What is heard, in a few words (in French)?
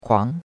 "kuang2", chinois; Cours de Chinois